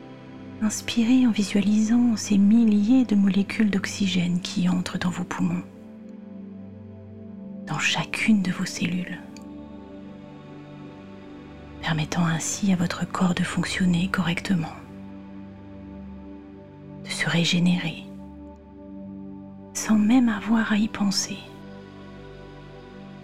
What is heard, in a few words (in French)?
seance avec musique